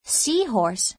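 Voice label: "sea horse", English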